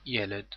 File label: segol niqqud hebrew vowels